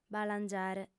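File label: "balangiare", female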